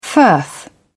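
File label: "firth", British English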